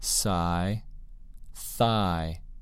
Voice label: "sigh" "thigh"